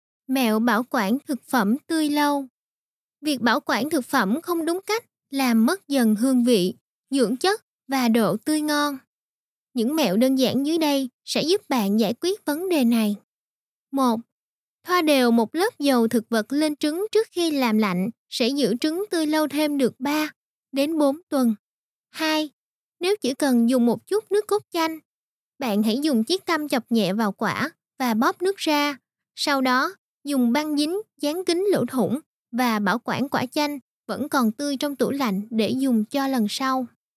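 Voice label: Vietnamese, female; vietnamese voice over talent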